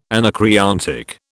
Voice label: "anacreontic", British